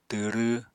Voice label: "twrw", Apatani